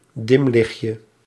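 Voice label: "dimlichtje", Dutch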